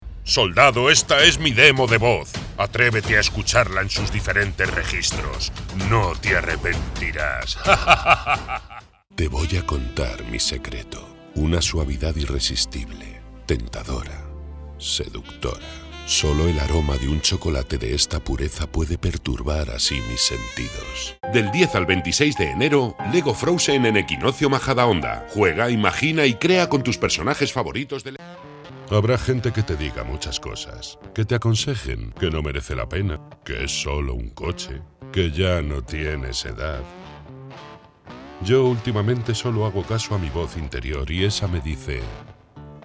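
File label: comercial, Spanish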